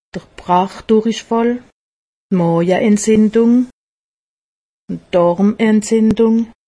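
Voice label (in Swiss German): "brachdurichfall", Haut Rhin; medecine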